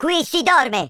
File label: boring, Italian